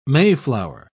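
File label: "mayflower", British English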